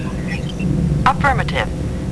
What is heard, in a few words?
computer affirmative; Star Trek TNG